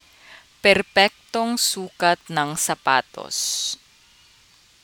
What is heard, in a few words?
tagalog